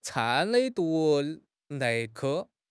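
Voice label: "搀", Mandarin Chinese; HSK; HSK6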